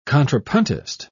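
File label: "contrapuntist", British English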